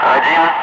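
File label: "5", spoken; Ka-50; Wingman; Digits; DCS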